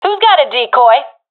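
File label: request decoy, professional female; vo; Counter Strike Model Pack; CS:GO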